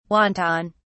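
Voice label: "wonton", British English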